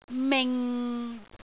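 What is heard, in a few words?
"ming6", Cantonese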